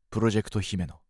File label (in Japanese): サウンドロゴボイス